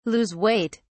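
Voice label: "lose weight", British English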